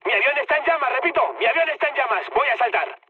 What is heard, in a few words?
on fire, mood med, speech; War Thunder